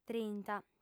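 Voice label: "trinta", female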